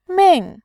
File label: "ming4", voice